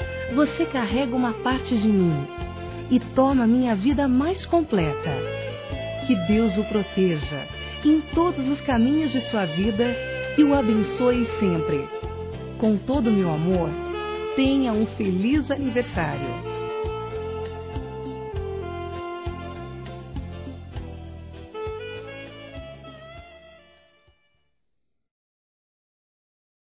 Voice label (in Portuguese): FILHO (F)